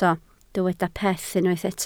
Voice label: "peth", Welsh

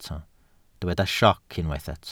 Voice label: "sioc", Welsh

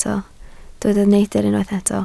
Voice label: "neidr", Welsh

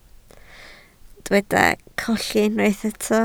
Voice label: "colli", Welsh

